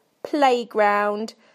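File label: "playground", British English; PictureDictionary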